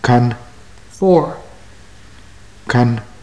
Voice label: numbers, maya